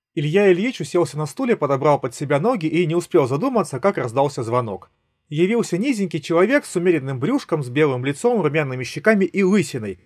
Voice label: Recorder; Запись на профессиональный рекордер; huawei freebuds 7i